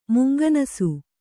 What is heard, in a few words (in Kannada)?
"ಮುಂಗನಸು", Kannada